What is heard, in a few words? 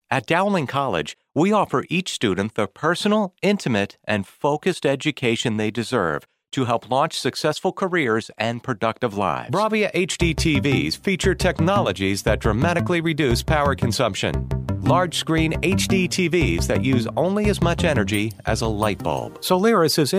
American Male